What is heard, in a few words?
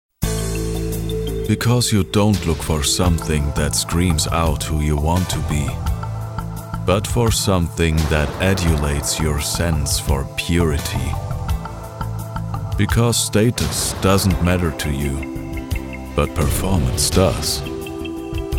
commercial English